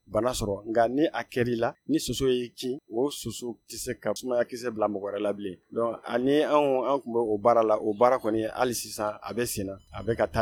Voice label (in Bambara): magazine bamanankan